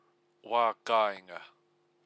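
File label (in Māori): "wakainga", Māori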